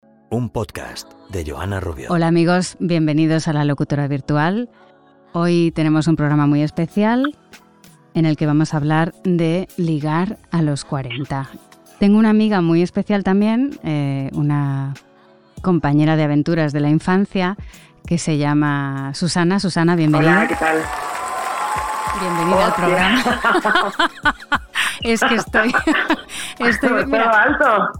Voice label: spanish podcasts

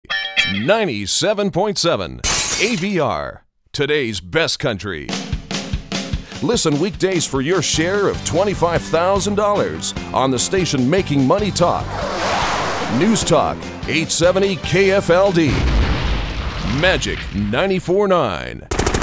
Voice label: english american radio imaging; Deep and authoritative with elegant clarity and warmth

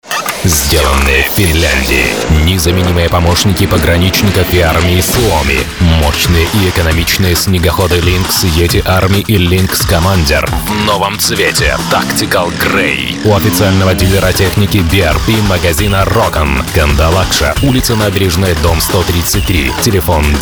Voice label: russian commercial

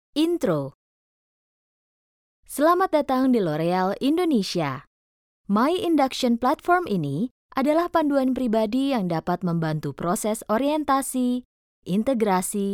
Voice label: indonesian training e-learning

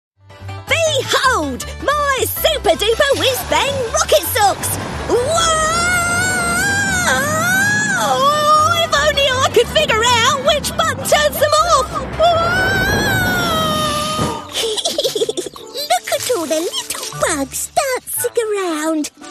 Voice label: english british animation

